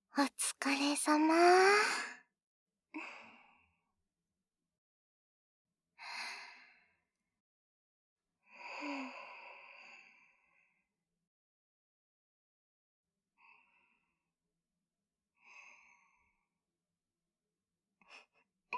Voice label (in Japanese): ピロートーク; カスタマイズトラック; ピロートーク編